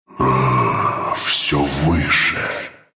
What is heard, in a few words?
level up ru; dota2